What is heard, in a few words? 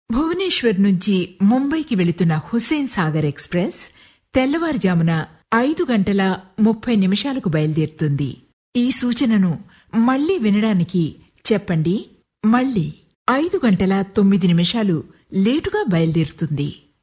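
Telugu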